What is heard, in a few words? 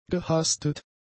"gehastet", German; adjectives